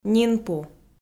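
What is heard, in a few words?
"нинпу", Komi-Zyrian, female; kpv audio dict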